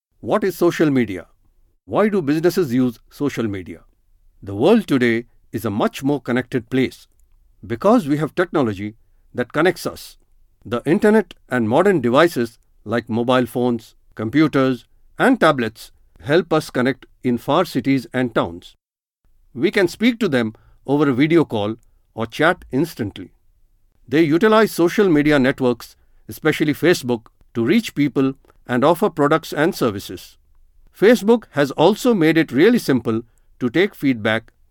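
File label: e-learn, English, male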